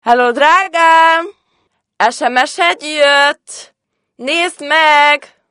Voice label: Drágám SMS csengőhang (nő)

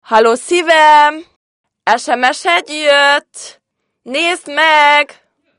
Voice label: Szívem SMS (nő), csengőhang

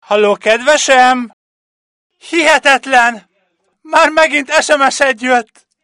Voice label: Kedvesem SMS férfi; csengőhang

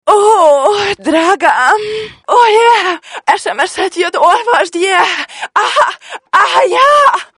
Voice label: Drágám erotikus SMS csengőhang

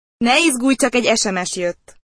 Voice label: Ne izgulj, csak egy sms (nő), csengőhang

